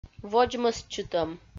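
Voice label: "водзмӧстчытӧм", Komi, female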